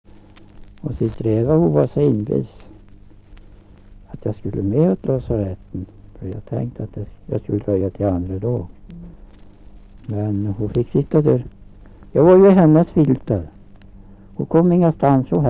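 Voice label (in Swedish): Skagershult Närke, dialekter; Folkminnen; Svenska sagor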